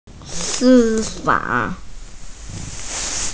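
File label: "si1fa3", Mandarin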